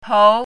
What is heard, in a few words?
"pou2", Chinese pinyin